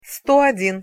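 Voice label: "sto odin", Russian pronunciation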